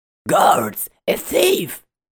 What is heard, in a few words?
Thief mean